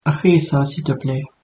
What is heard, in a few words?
write, mauritian creole